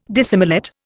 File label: "dissimilate", Indian